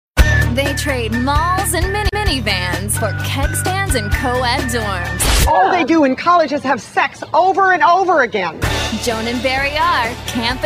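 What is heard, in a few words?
promo, voiceover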